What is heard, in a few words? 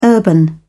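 "urban", British English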